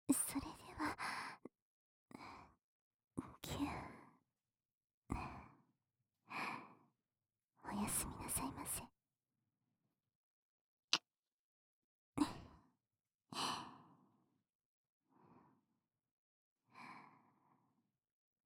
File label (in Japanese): 添い寝